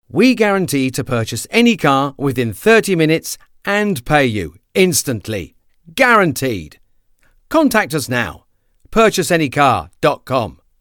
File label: Commercial